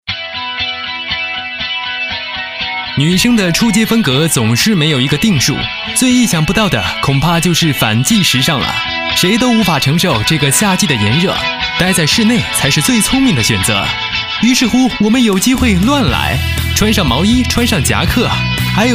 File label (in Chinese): 男 栏目导视 明星时尚穿搭节目